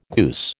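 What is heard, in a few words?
"goose", American English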